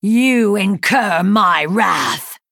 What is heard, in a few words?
THREATEN